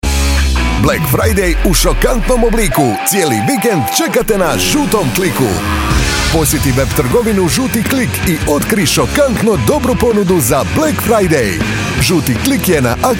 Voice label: RADIO energy, croatian